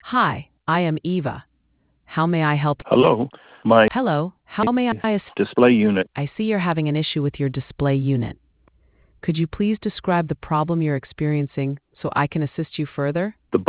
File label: conversation